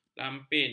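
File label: "lampin", Malay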